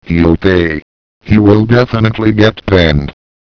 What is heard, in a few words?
chat; svencoop; cracklife; hgrunt